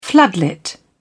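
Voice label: "floodlit", British English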